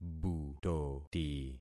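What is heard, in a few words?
"butoti", concatenated syllables; Affixing experiment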